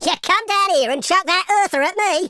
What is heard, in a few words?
"you'll regret that", London Cabbie; speech